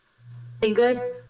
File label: Voice Acting, es, F0